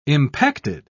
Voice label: "impacted", pronounce